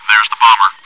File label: chitchat; svencoop